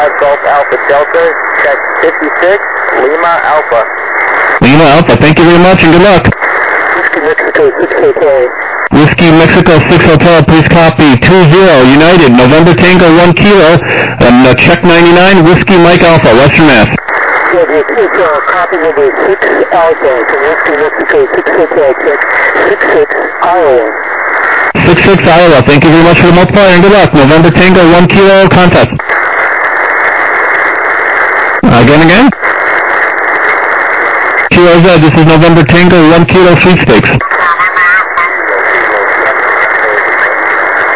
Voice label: USB, 21MHz